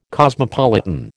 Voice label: "cosmopolitan", American